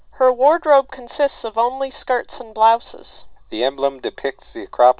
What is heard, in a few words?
nonstationary conference phone mic; microphone signal; Microphone signal spectrogram and microphone signal